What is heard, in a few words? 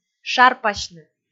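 "шарпасьны", Komi, female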